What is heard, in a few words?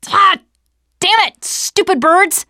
gravel hill, teengirl